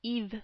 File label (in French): "Yves", French